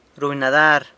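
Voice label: "runy nadar", San Lucas Quiaviní Zapotec; swims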